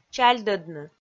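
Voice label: "чальдӧдны", Komi, female; kpv audio dict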